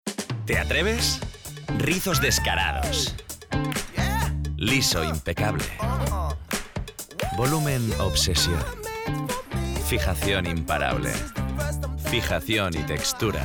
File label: male spanish hair comercial; ideasonora